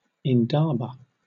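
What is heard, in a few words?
"indaba", British English; pronunciations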